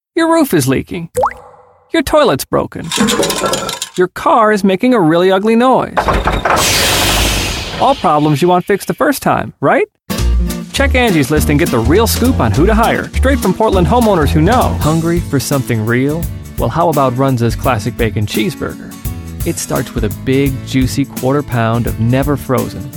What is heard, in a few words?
Commercial